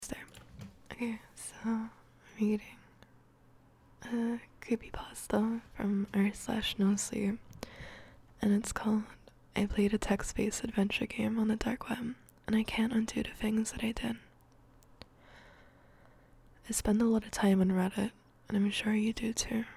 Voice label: ASMR stream